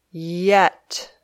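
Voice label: "yet", English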